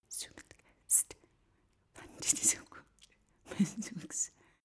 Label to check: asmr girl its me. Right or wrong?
Wrong